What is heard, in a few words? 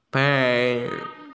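"pen2", Mandarin Chinese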